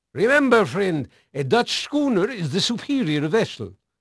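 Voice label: Dutch male, voice; Pirates Of The Caribbean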